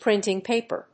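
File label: "printing-paper", English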